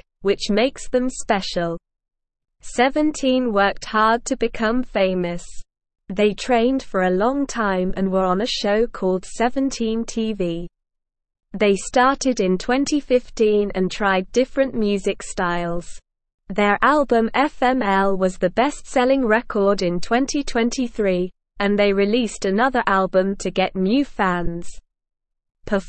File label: English SLOW Reading